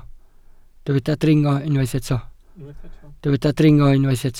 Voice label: "dringo", Welsh